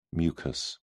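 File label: "mucous", British English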